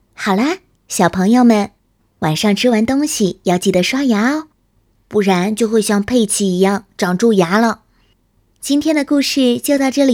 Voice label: 甜美儿童科普节目旁白

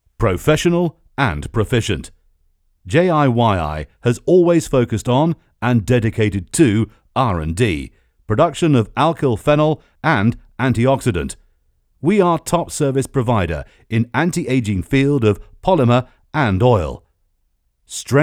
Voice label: 外籍英语英式宣传片大气

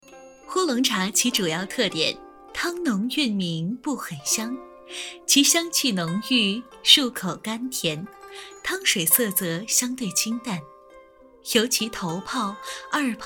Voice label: 科普 茗茶介绍

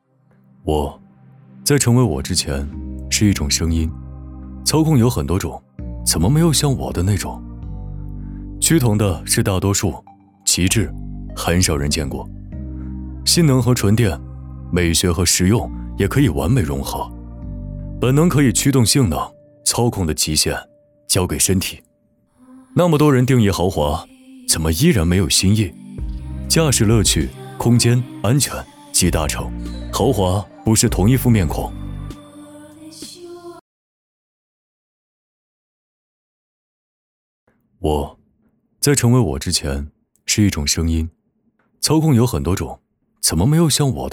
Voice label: 磁性自然

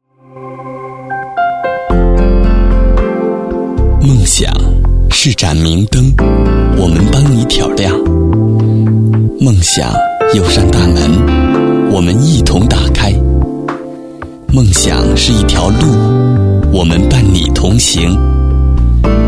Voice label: 艺术培训宣传配音; 艺佳人

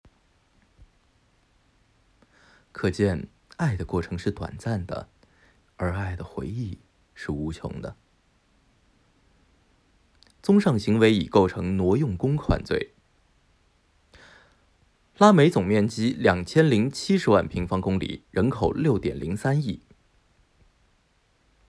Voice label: 无情感

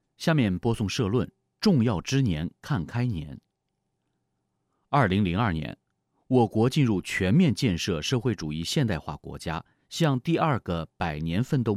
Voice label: 新闻

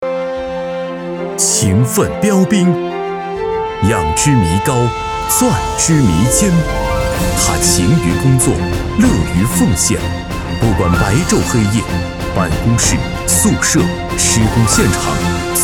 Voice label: 男颁奖

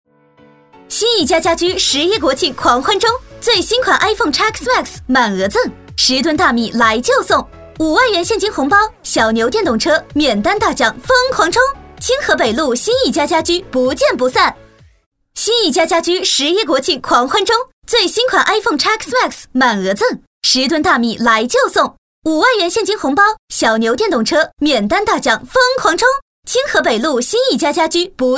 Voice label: 促销

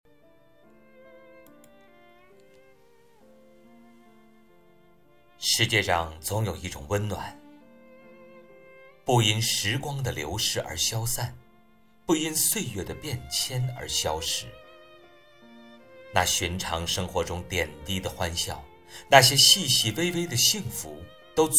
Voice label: 旁白/自白/独白; 爱在心中留

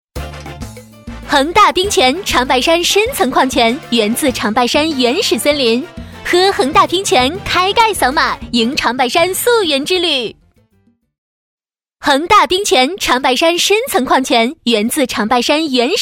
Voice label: 促销; 恒大冰泉

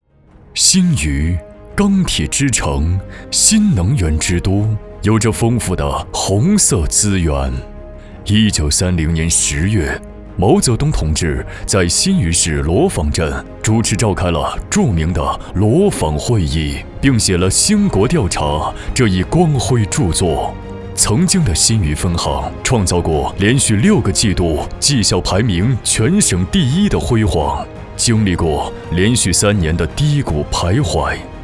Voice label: 党政宣传; 新余市党建名片

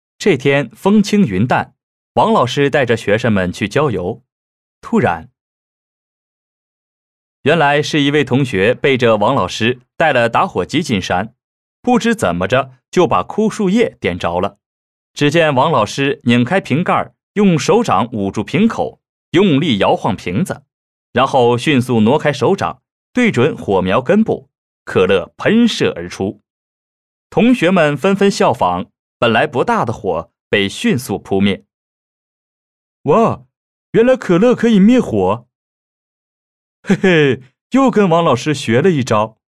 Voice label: 儿童故事 旁白

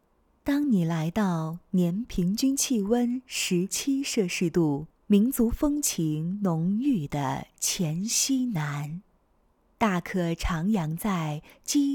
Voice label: 纪录片 端庄 高贵 厚重

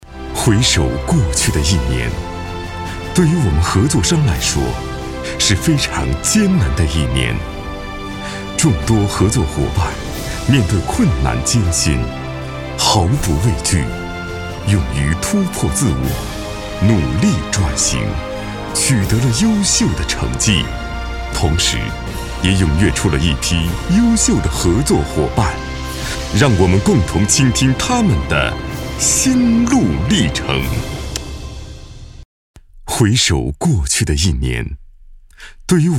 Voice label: 企业回顾大气感情

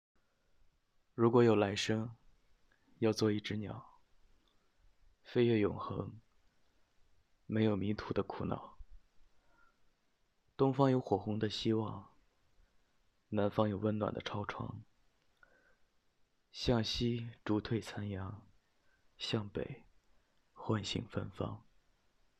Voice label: 情感类

